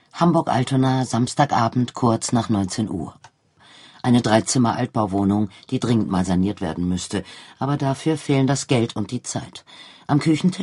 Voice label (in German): Hörbuch; Bullenpeitsche; Simone Buchholz